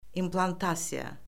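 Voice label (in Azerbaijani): "implantasiya", Azerbaijani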